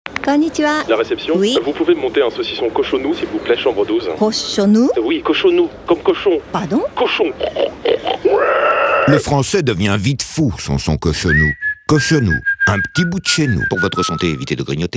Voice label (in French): radio pub spot; alimentaire